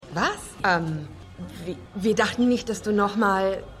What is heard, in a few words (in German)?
Kellnerin, Stimmproben; Karina; Shameless